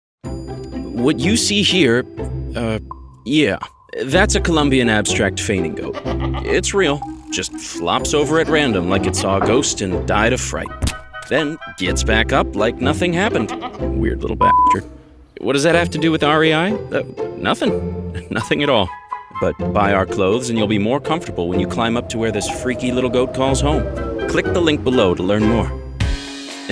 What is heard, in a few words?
EXPLAINER